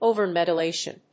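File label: "over-modulation", English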